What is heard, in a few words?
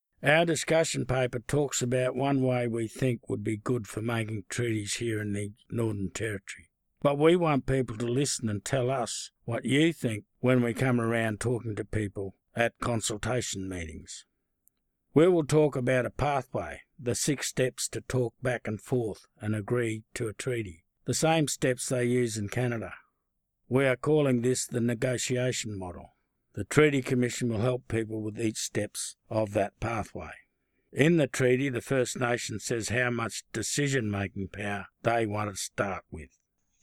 English